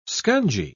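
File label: "scungy", English, British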